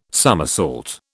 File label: "summersault", British